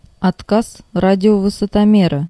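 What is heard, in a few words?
Russian speech, Ka-50; RADIO ALT; Almaz; DCS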